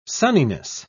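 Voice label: "sunniness", English, uk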